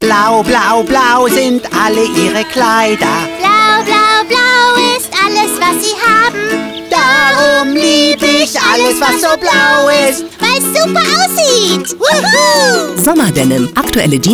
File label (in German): Spots, Szene, maenner; ErstingsFamily; Fruehlingserwachen